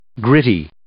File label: "gritty", English